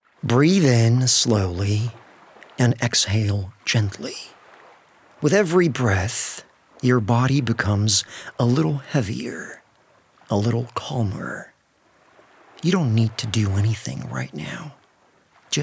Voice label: male light usa accent meditation; Devine Voices

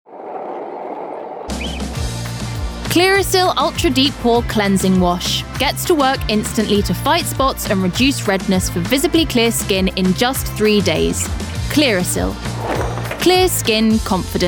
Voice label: female English RP commercial; english southern accents

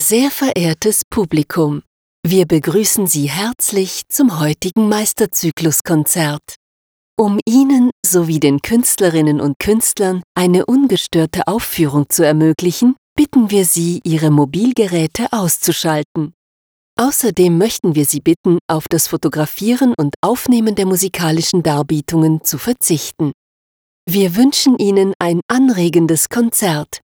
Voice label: female German announcement; Devine Voices

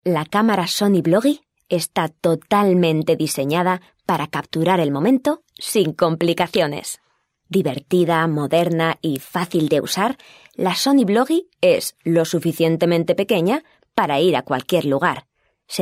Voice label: female spanish castilian corporate explainer; sony bloggie

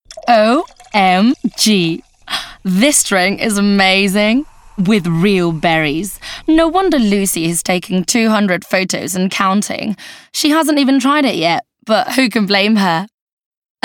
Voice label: female English compilation; english and spanish castilian

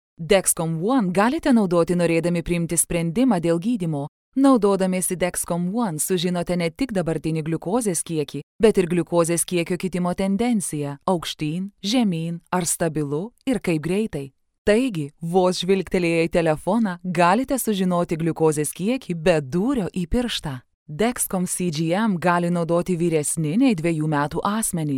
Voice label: female Lithuanian medical app e-learning

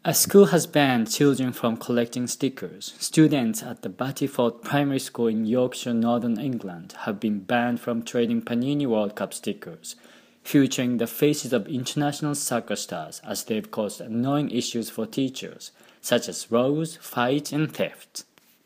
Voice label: male japanese english with japanese accent news

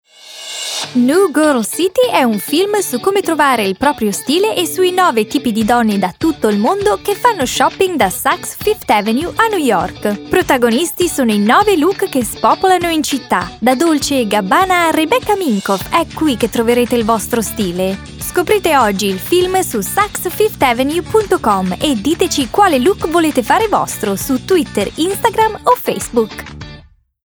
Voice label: female italian commercial; Saks Fifth Avenue

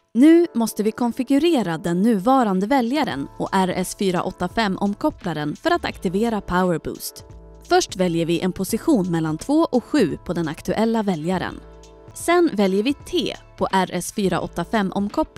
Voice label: female, Swedish e-learning; wallbox academy

